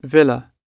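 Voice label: "villa" British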